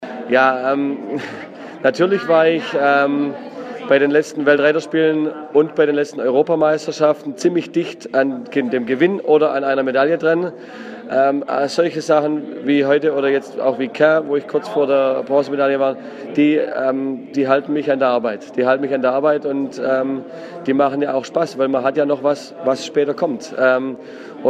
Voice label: ger; FEI Aachen Ludwig

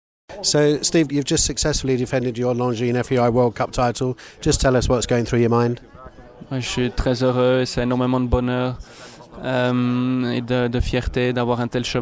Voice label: French; Longines FEI World Cup Jumping Winner Steve Guerdat

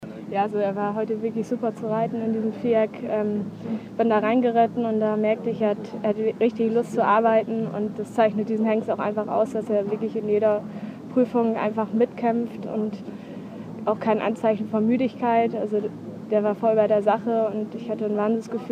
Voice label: Ger; FEI Aachen Sprehe GP

